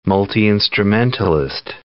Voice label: "multi-instrumentalist", English